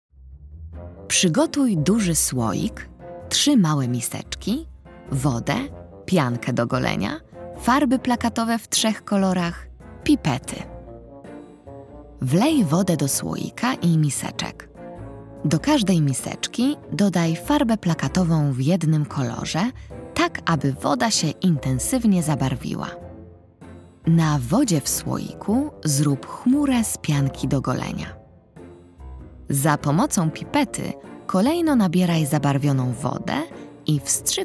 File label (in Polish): narracja; czasopismo mis; wiosna; eksperyment